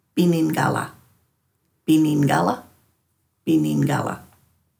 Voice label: "binin.gala", Dhurga